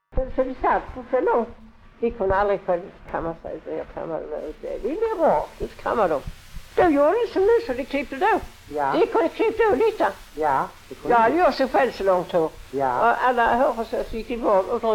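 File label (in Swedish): Lunds stad Skåne, Svenska sagor dialekter; Folkminnen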